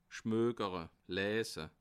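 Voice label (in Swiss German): "schmokere", Bas Rhin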